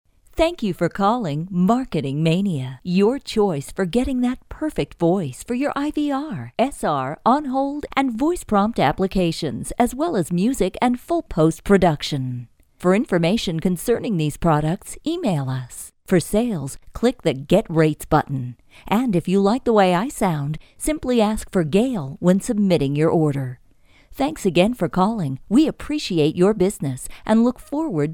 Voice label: Voice Sample, onhold